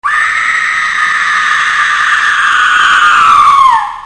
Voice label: Woman; Effects; people